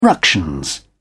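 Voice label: "ructions", English, British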